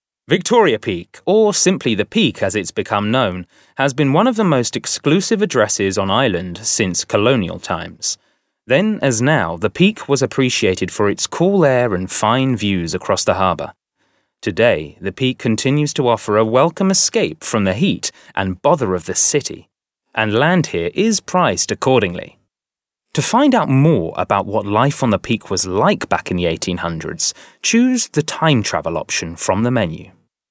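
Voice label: British English male corporate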